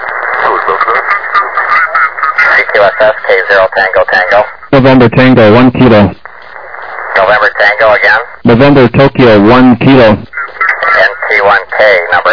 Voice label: SSB USB 21MHz